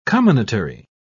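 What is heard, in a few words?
"comminatory", English, British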